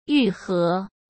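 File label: "愈合", Mandarin Chinese; hsk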